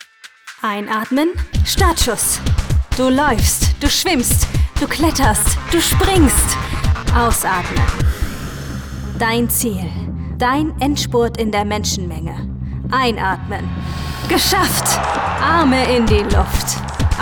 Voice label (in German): Werbung